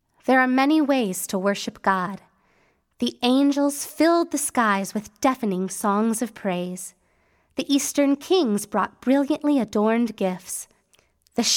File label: Closing Narration; starry night noisy night